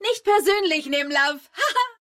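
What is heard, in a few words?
German; 回声（守望先锋2）/终极技能; 德语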